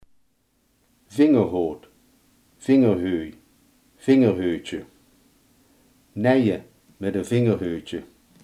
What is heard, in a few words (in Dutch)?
"vingerhoed", limburgse